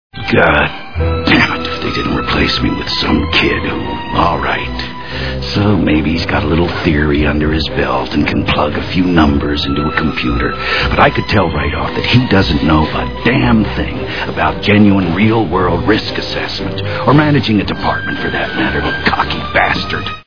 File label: kid; About Schmidt